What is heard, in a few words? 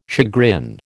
"chagrin", American